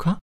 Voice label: doubt; Techmino; flore